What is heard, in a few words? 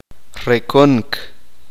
uspanteko; rascar